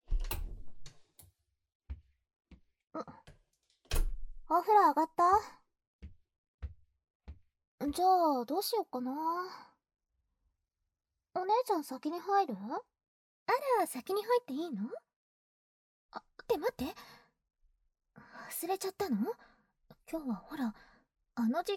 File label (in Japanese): スライム音無し, ASMR